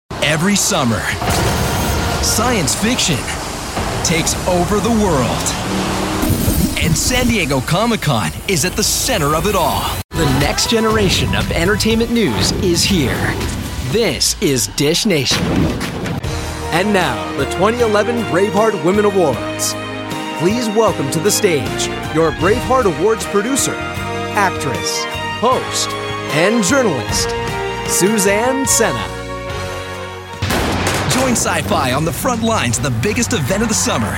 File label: american voice commercial